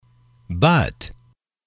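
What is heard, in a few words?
"but", male, English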